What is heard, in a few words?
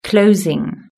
"closing", british english